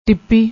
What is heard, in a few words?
"tippi", Punjabi